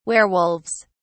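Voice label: "werewolves", British English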